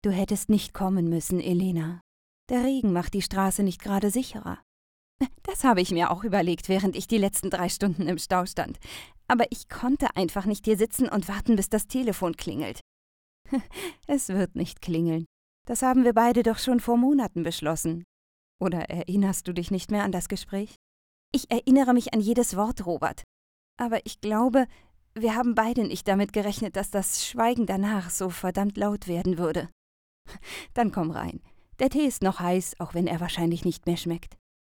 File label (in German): Hoerspiel Romantisch